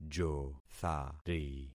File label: "jothari", concat syllables; Affixing experiment